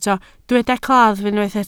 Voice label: "claf", Welsh

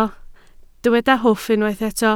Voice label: "hwff", Welsh

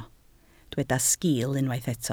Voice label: "sgiil", Welsh

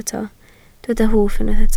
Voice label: "hwff", Welsh

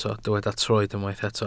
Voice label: "troed", Welsh

